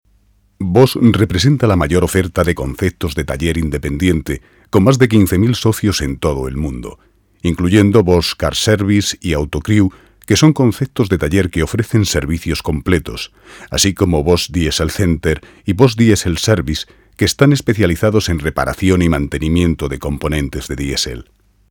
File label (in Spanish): corporativo